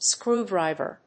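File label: "screwdriver", English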